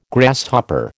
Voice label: "Grasshopper", American